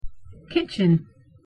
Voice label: pronunciation en kitchen; the home